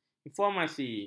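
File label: "informasi", Malay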